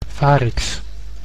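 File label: "varix", Dutch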